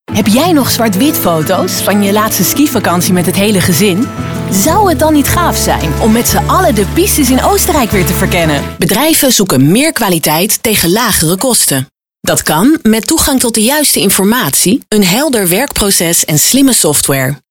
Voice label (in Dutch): commercials